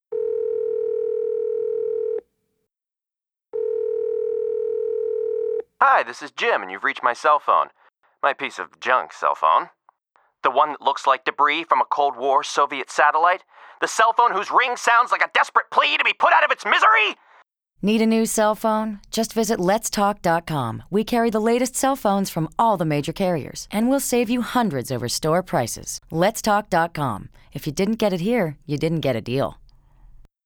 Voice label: Radio Voicemail